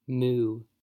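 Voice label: "moo", US English; pronunciations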